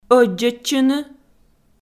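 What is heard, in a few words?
"ӧддзӧдчыны", Komi, female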